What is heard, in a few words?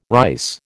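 "rice", American English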